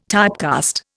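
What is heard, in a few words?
"typecast", Indian English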